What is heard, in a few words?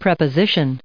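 "preposition", US English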